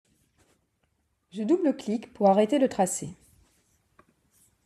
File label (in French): Voix off